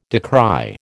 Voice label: "decry", American

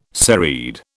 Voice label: "serried", British

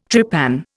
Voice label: "trepan", Indian

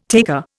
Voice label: "taker", Indian

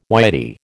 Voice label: "Whitey", American

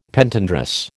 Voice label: "Pentandrous", American

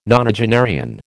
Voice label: "nonagenarian", American

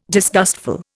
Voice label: "disgustful", Indian

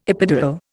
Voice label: "epidural", Indian

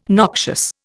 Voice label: "noxious", Indian

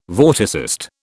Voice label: "vorticist", British

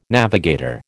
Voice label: "navigator", American

